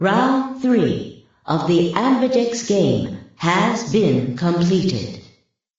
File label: announcer round 3 completed